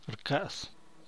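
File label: "rcua", San Mateo Macuilxochitl Zapotec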